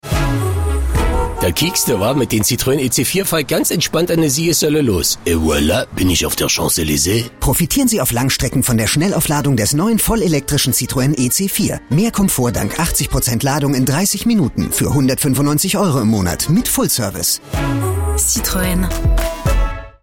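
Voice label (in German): Citroen Dialekt Berlin Paris, Commercial (Werbung), German, Berlinerisch, French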